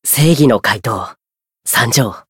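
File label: Voice encount Japanese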